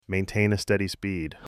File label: Male Maintain Speed